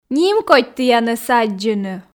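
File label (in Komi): нимкодь тіянӧс аддзыны, Komi, female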